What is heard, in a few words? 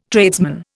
"tradesman", Indian